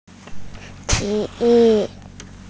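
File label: "ti3 yu4", Mandarin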